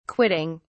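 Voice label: "quitting", English, uk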